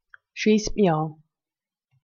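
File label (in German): "schiest mi aa", Swiss German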